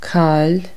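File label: lose imperative, Irish, Consonants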